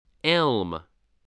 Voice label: "elm", English